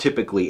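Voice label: "typically", US English; pronunciations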